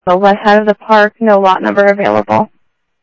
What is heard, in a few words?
South Scottsdale Sheriff, scanner audio